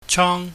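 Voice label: "chong", Cantonese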